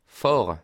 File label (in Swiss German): "fahre", alsace